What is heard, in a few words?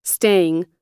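"staying", American English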